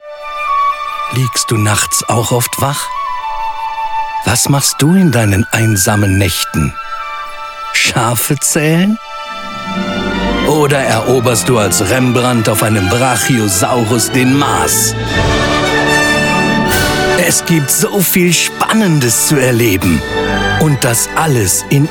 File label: Museum, Hördemo Trailer, Commercial (Werbung), German; Museumsnächte